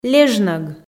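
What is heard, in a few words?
"лежнӧг", Komi, female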